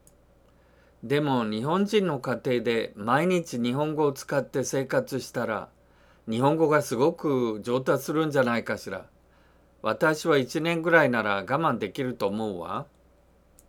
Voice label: dialogue line, japanese